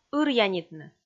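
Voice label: "ыръянитны", Komi, female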